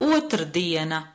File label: "Otrdiena", Latvian